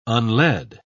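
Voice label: "unled", English, British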